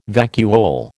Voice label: "vacuole", American